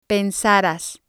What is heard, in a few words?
"pensaras", spanish